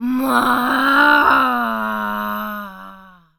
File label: Zombies Waitress Taunt; zps